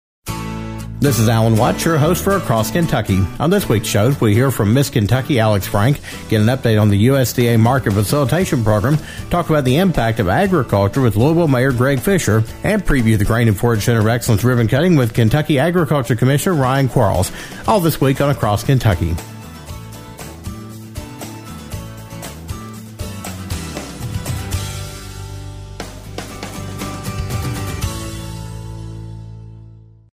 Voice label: promo; Across Kentucky